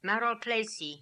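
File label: "Maralpleicie", pronunciations; Fourth Way; Beelzebub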